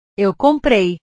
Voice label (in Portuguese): "eu comprei", portugues; comprar; portugues/verbos